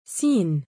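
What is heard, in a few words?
"siin", Arabic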